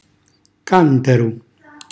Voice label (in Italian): "cantaru", Calabrese; vaso da notte